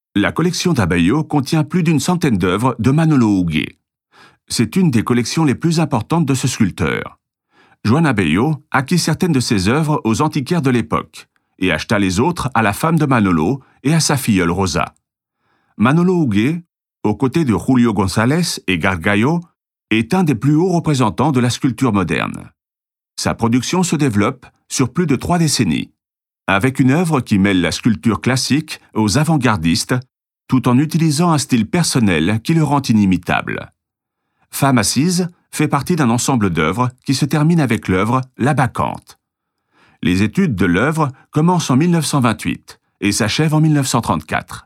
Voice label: audioguia french